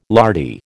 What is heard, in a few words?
"lardy", American